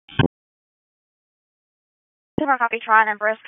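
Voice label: Dispatch 1, P25; WSO DISP 1; wake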